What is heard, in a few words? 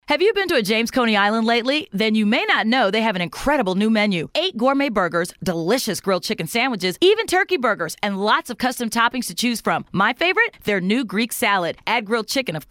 radio spot kids eat free